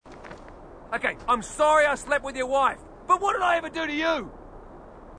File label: marine, aussie; h2dialogue